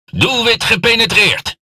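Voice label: NL Speech Hit Confirmation, Dutch; World Of Warships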